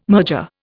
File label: "merger", Indian